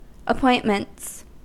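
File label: "appointments", US English; pronunciations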